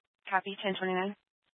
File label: Fire Dispatch